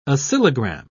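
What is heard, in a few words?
"oscillogram", British English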